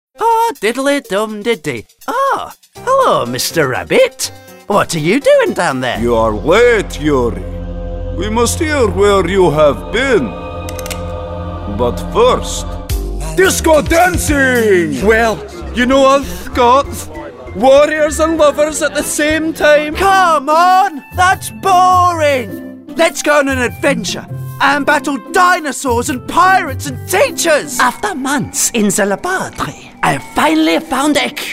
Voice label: animation reel, voiceover